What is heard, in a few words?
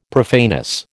"profaneness", American